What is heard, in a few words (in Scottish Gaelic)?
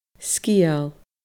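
"sgeul", Scottish Gaelic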